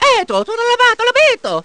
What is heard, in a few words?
gibberish; mario